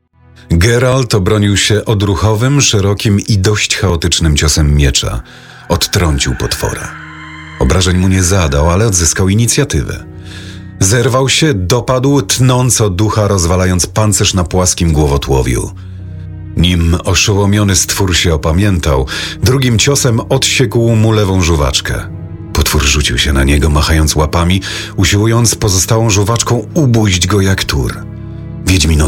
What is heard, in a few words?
audiobook